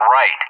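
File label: "right", English; JTAC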